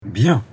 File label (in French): "Bien", Paroles; bruitage